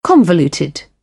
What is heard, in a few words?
"convoluted", British; English